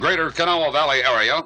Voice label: "Kanawha" pronouncer